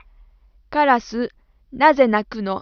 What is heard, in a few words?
input speaking female